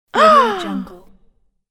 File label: female astonished voice